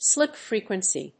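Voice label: "slip frequency", English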